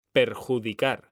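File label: "perjudicar", Spanish; mekanta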